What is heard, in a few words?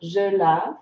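"laver je", French; frans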